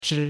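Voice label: "chi", Chinese, first tone; Cours-de-Chinois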